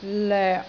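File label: "loek6", Cantonese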